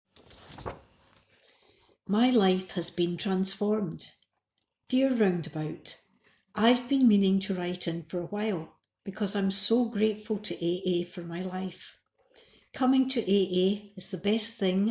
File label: Female audio